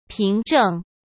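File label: "凭证", Mandarin Chinese; chinese flashcards; hsk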